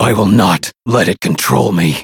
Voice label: menu idle eng; Cookie Run; dark choco